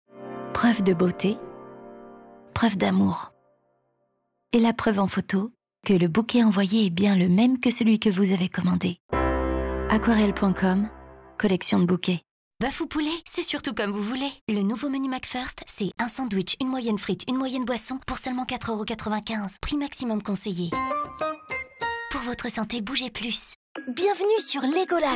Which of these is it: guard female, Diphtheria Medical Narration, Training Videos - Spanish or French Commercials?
French Commercials